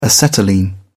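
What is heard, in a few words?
"acetylene", British English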